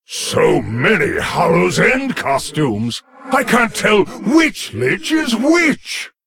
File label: Male Lich HAPPY HALLOWEEN